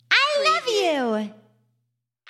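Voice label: Female Love You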